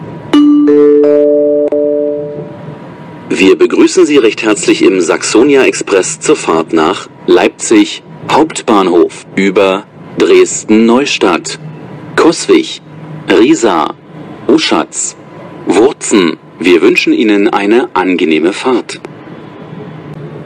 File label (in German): Begrüßung Dresden Hbf; Dresden HBF RE50